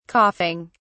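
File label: "coughing", British English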